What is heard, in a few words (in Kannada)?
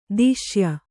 "ದೇಶೀಯ", Kannada